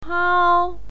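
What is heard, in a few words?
"hao", Mandarin Chinese pinyin, first tone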